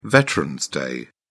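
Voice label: "veterans day", British English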